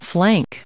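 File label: "flank", US English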